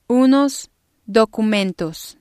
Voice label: "unos documentos", Spanish

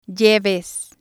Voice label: "lleves", spanish

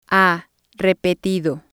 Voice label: "ha repetido", spanish

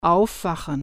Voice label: "wakeup", German

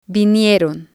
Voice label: "vinieron", Spanish

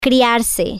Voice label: "grow up" Spanish

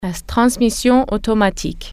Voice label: automatic transmission, French

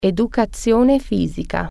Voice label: physical education, italian

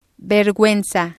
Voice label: "verguenza", Spanish

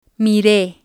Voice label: "mire", spanish

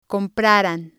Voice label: "compraran", Spanish

